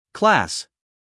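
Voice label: "class", American English, male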